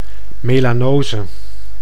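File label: "melanose", Dutch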